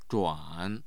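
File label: "zhuan3", Mandarin Chinese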